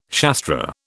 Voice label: "Shastra", British